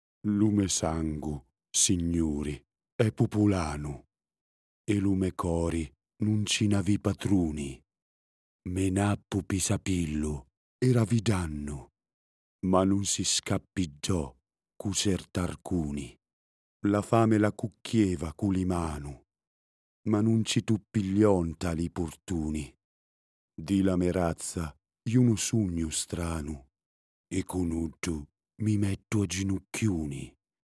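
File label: Italian SICILIAN ACCENT Narrated poetry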